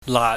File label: "laat", chinese